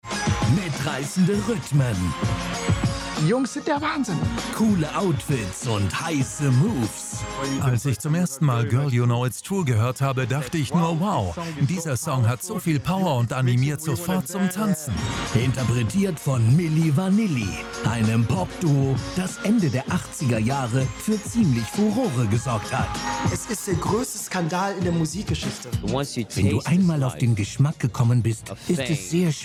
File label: MOVIETRAILER Off Voice; GIRL YOU KNOW ITS TRUE